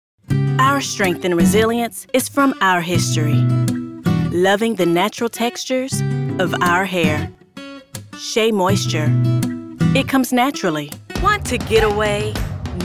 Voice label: african american commercial; AFRICAN AMERICAN » A natural storyteller with a warm energy that is engaging and authentic.

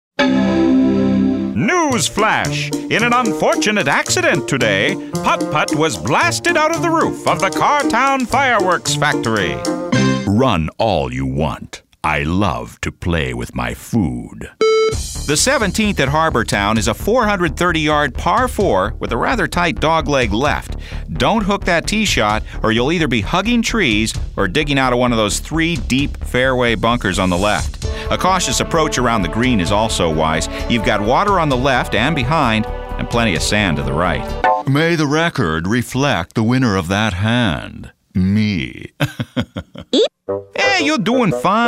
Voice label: men animation; So naturally himself, he makes the script disappear.

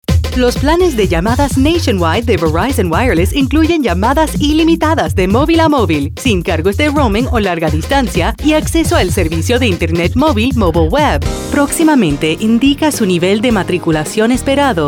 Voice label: spanish commercial; American/Neutral Spanish Speaker. Confident Spanish language announcer with all the answers.

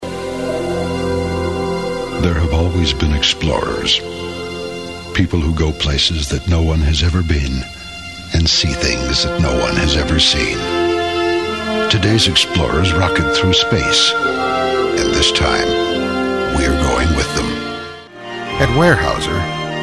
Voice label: men commercial

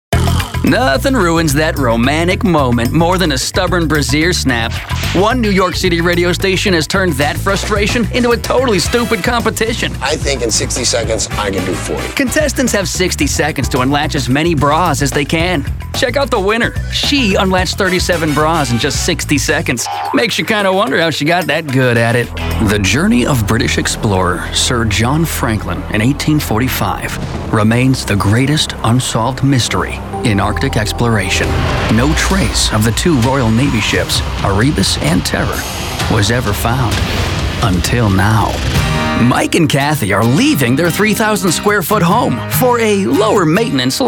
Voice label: men tv affiliate

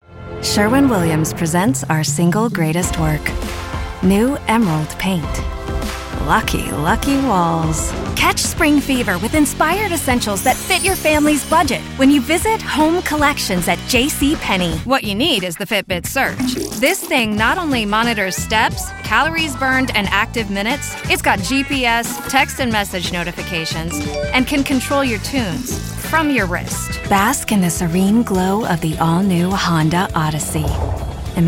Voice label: women, commercial

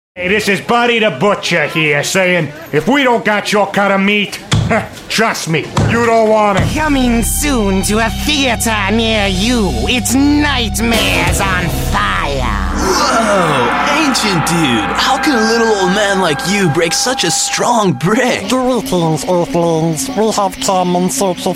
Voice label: men animation; Youthful, quirky, great with characters.

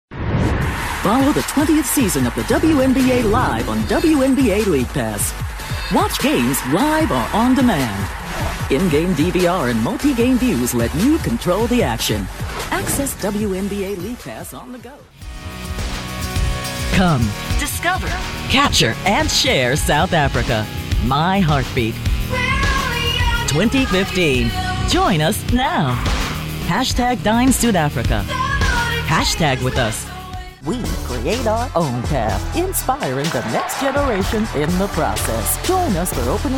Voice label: african american promos; AfAm NON-Urban, Polished, Powerful & Professional - Educated Sound. Voice Quality: Warm, Rich ā Mezzo, Flexible

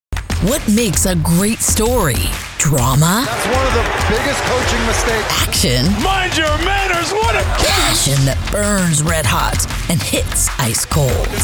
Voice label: women commercial; Unique, rich/deep, wry/dry, warm/friendly, fun, sassy, confident, and versatile (30-50's).

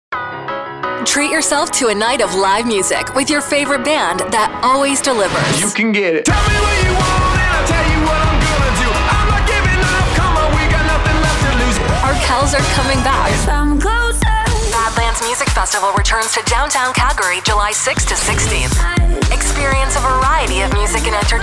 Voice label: women promos; A millennial, natural-sounding voice

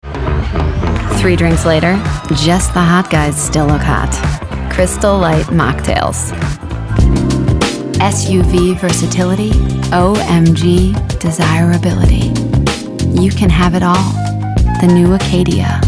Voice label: women commercial

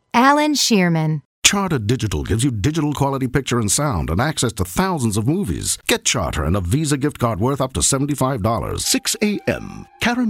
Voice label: british commercial; Polished, sophisticated.

